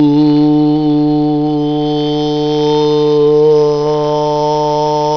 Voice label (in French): arrières tendues, voyelles; postérieures; tendues de [ɯ] à [ɑ] = [ɯʊɤʌɑ]